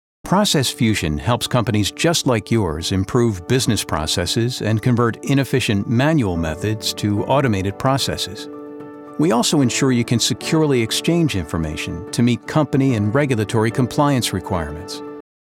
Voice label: Corporate Narration Trustworthy Intelligent Experienced